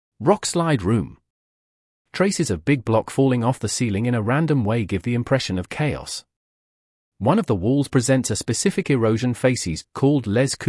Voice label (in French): salle de l effondrement anglais